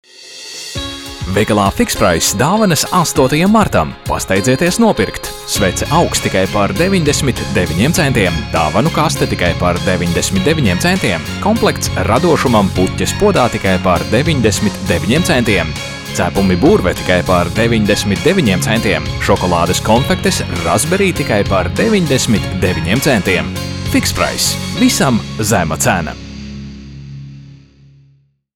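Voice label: lv, Latvian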